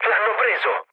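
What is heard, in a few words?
Italian aircraft destroyed mood low, speech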